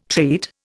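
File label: "treat", Indian English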